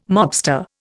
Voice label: "mobster", Indian

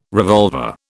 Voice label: "revolver", British

